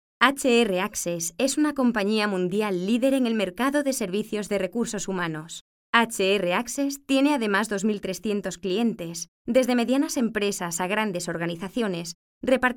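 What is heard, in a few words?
Spanish Spain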